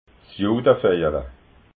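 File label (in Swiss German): "judaveijala", Alsatian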